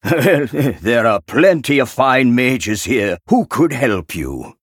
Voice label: Male Human